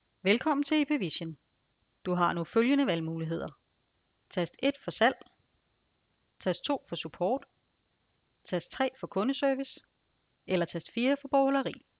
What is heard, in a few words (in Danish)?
kvinde; Ipvision Demo